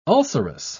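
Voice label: "ulcerous", British English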